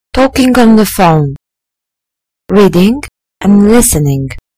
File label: reading